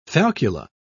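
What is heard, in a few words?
"falcula", British English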